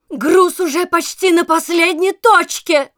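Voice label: Announcer cart attacker final warning, Russian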